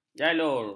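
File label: "jalur", Malay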